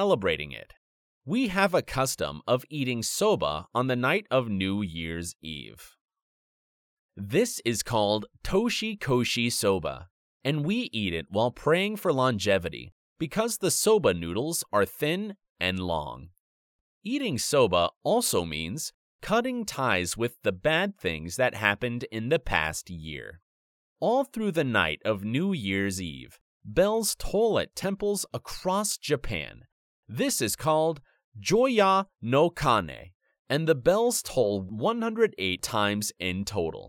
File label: omisoka normal speed